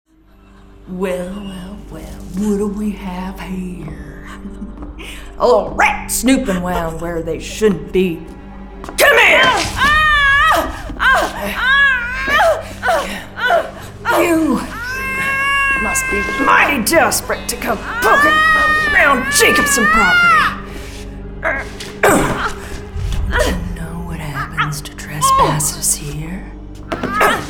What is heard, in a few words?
Southern Accent HORROR; Magnolia